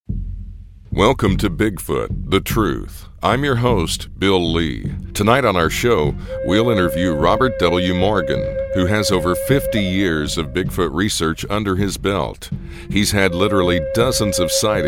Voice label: Podcast

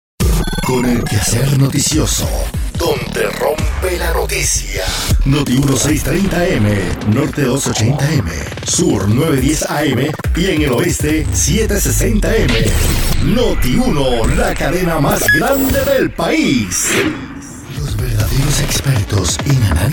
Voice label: LATAM Spanish Male Radio Imaging News

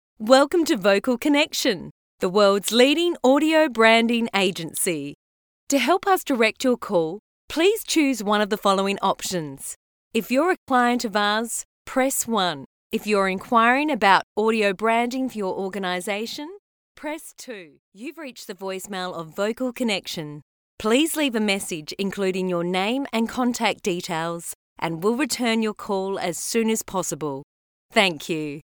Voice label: IVR

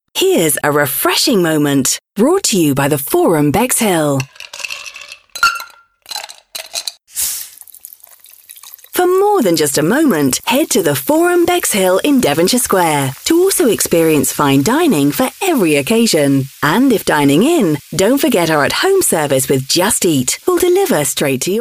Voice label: Radio

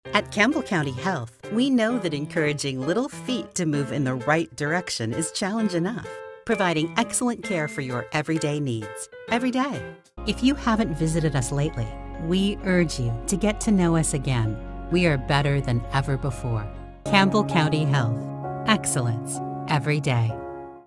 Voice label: TV Spot; CCH

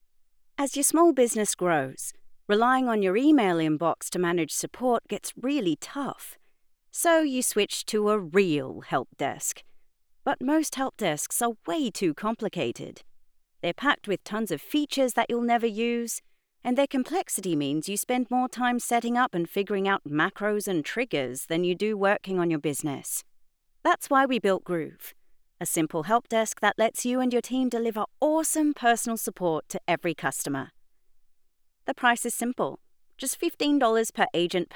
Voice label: explainer uk friendly

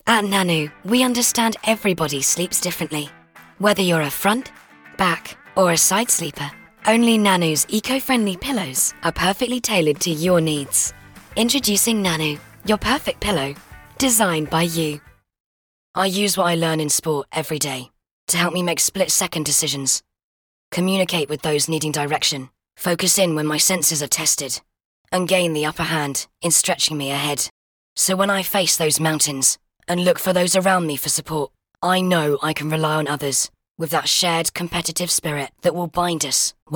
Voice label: Young Millennial

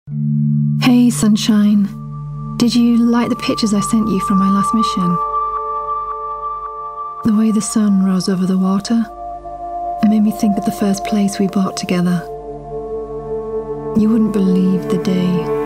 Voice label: Video Game VO

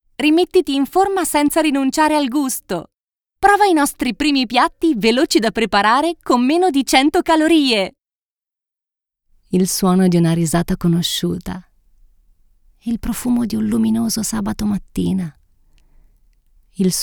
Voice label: spot

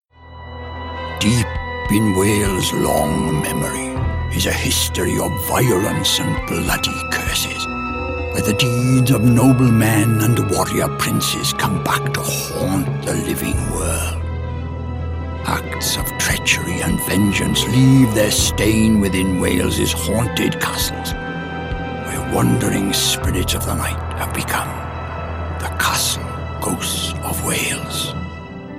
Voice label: Doc Ghosts of Wales

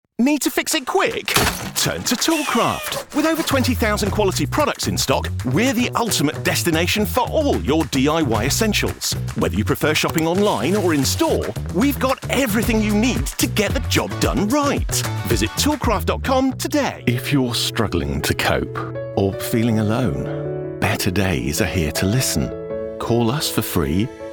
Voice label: Commercial Reel

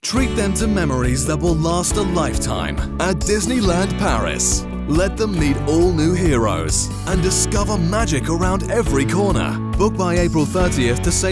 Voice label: Commercial and Promotional Voice Reel

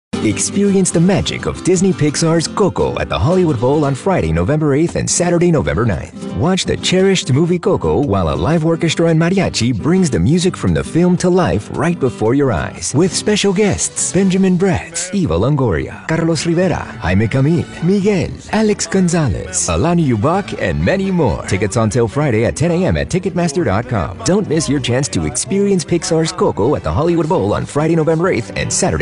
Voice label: TV Spot; Hollywood Bowl

